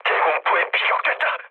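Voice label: Korean damaged aa mood low, speech; War Thunder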